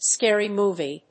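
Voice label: "scary movie", English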